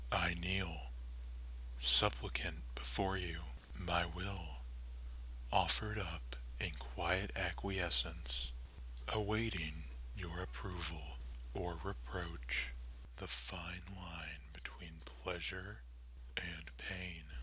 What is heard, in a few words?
Erotic Poetry